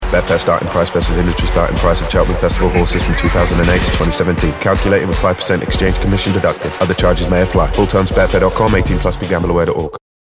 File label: Legals